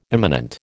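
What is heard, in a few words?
"imminent", American English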